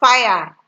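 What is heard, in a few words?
"fire", Nigerian Pidgin, female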